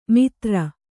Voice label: "ಮಿತ್ರ", Kannada

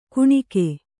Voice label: "ಕಣ್ಗಾ", Kannada

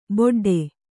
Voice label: "ಬೊಡ್ಡೆ", Kannada

